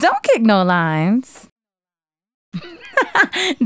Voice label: Don't Kick No Lines, Hip-Hop and RnB Vocals